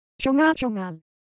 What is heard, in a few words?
Korean Sound, ideophones